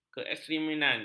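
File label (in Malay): "keekstreman", Malay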